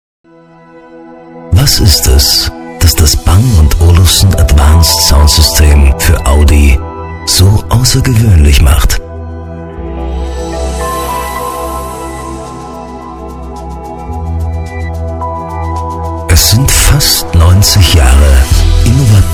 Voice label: Deep affective